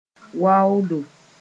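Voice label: "waoodo", Mooré; cold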